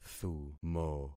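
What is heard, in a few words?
"thumo", concatenated syllables; Affixing experiment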